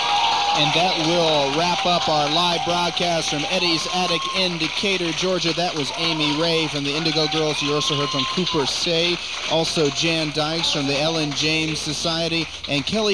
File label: radio announcer